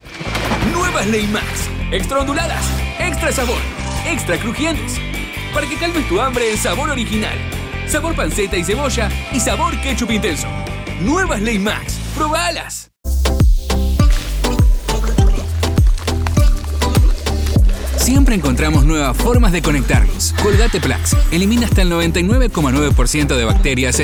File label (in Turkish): İspanyolca Erkek Latin Amerika İspanyolcasi Arjantin; seslendirme